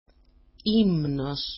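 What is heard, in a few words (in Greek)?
"imnos", Greek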